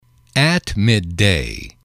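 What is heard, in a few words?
"midday", English, male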